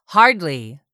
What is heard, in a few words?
"hardly", English; 3000 words